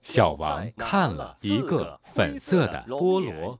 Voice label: Target Male Masker Male